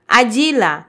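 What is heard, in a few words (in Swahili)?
"ajila", Swahili, Kenya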